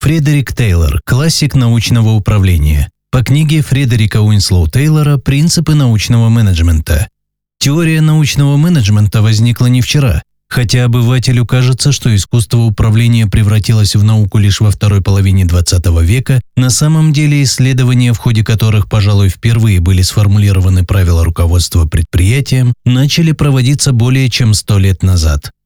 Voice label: russian narration